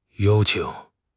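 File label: "yao1 qing3", Mandarin Chinese; HSK4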